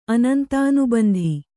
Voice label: "ಅನಂತಾನುಬಂಧಿ", kannada

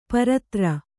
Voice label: "ಪರತ್ರ", Kannada

